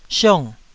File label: "siong4", taiwanese Syllables